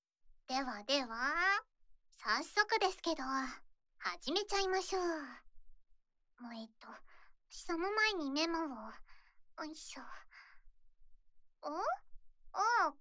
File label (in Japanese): 耳舐めレッスン, ASMR